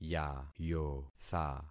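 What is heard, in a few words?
"yayotha", concatenated syllables; Affixing experiment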